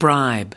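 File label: "bribe", US English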